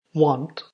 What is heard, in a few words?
"want", ulster; jetpackLearningPlatform; story-arranger